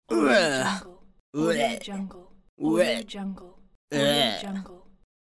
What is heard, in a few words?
teenage boy voice grossed out